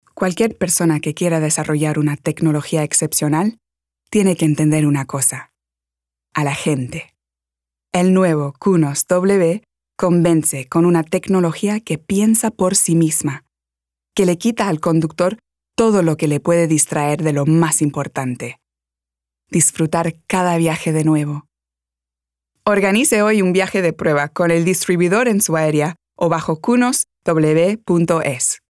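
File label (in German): Spanish, Werbung; Cunos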